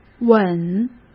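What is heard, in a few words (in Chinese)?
"稳", Mandarin Chinese; hsk; chinese-flashcards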